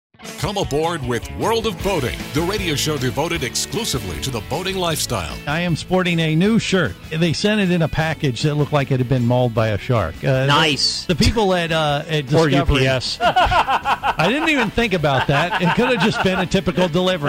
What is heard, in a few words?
Promo